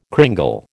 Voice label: "cringle", American

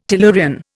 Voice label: "tellurian", Indian

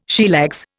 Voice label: "sheerlegs", Indian

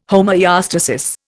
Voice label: "homoeostasis", Indian

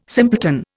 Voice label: "simpleton", Indian

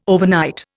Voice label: "overnight", Indian

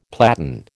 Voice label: "platen", American

